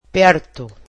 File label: "near", Portuguese